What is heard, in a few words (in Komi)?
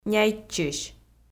"няйтчысь", Komi